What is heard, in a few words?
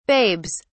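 "babes", British English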